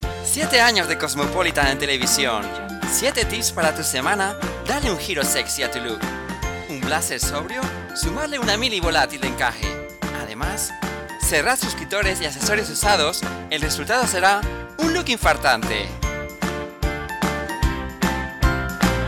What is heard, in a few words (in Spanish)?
happy anuncio con musica